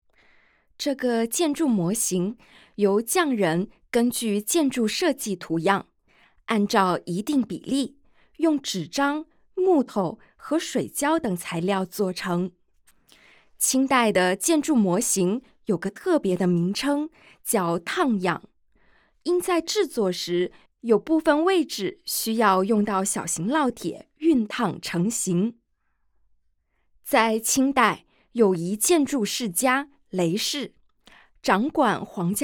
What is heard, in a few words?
Mandarin; yuan ming yuan